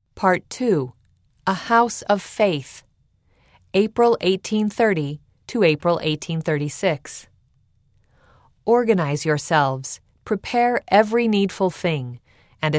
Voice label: female voice; part 2 a house of faith; eng

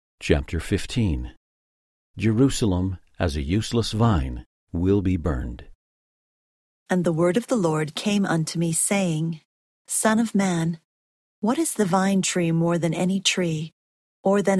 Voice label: ezekiel female voice; the old testament

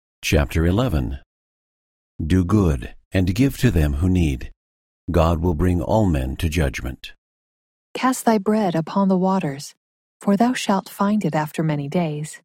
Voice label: ecclesiastes female voice; the old testament

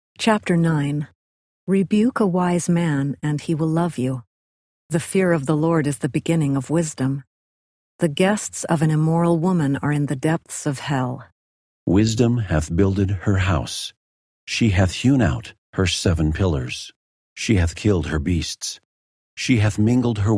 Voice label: proverbs male voice; proverbs 09; the old testament

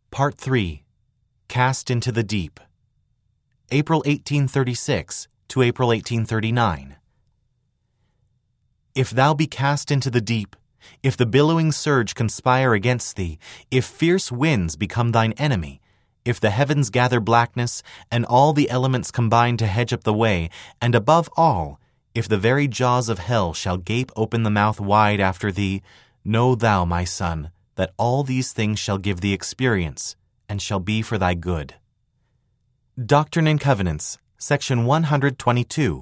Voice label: male voice; part 3 cast into the deep april 1836 april 1839; eng

